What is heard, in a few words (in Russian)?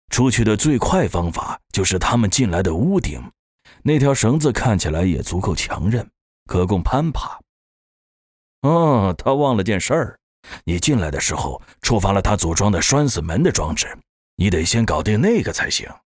Мужской китайский